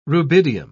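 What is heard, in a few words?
"rubidium", English, British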